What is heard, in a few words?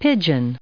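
"pigeon", English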